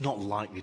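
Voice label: "no quite likely", Standard Southern British English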